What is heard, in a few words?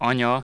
palatal nasal medial, hungarian